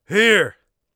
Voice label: here, Warrior Voice; Warrior Voice Pack